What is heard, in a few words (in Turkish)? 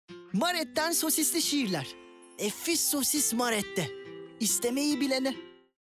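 neseli esprili, seslendirme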